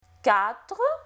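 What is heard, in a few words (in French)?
"Quatre", French; Lingvo; francais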